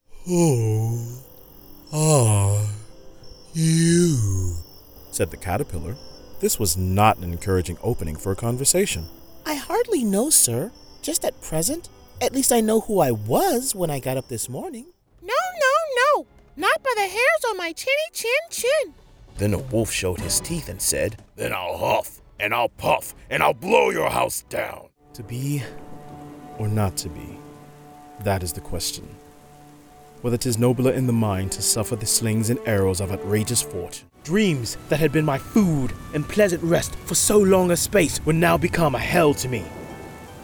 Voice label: Narration